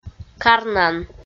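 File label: "карнан" Komi, female; kpv audio dict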